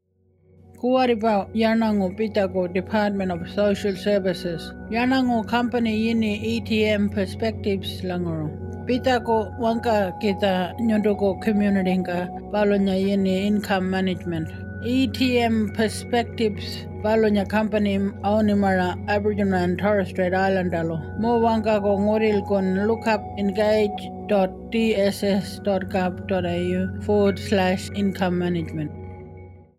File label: Ngaanyatjarra; Income Management Consult